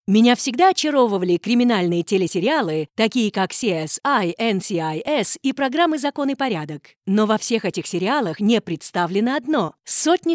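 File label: Russian Russia female narrative; russian voice over talent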